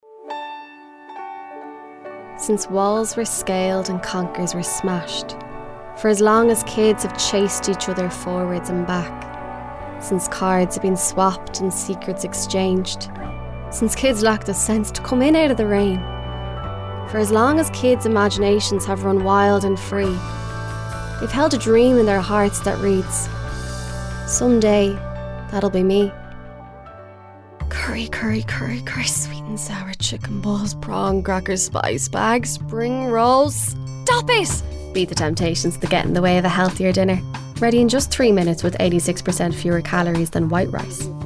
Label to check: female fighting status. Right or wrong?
Wrong